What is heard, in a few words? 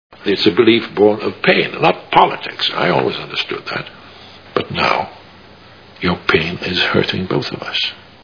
pain; Minority Report